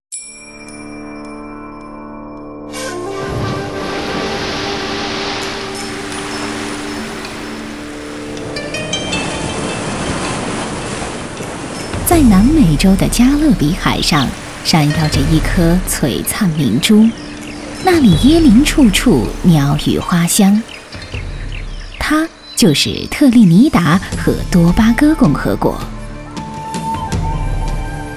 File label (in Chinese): 女 专题; 广南